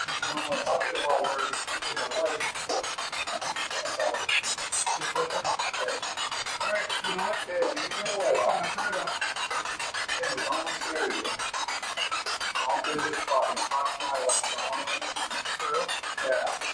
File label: Basement Barbershop Spirit Box